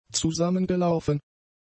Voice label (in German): "zusammengelaufen", German; adjectives